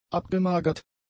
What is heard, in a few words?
"abgemagert", German; adjectives